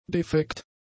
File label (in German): "defekt", german; adjectives